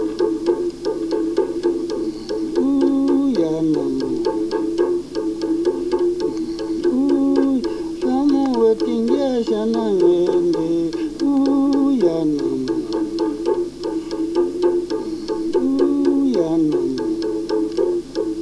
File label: kxoe